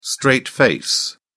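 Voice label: "straight face", British English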